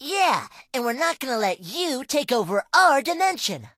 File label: English Voice